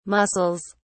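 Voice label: "mussels", British English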